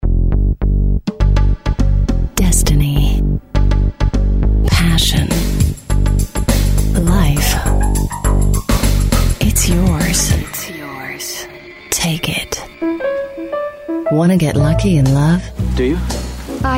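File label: us commercials, American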